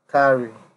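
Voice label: "carry", Nigerian Pidgin, male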